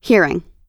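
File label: "hearing", American English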